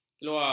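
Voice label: "keluar", Malay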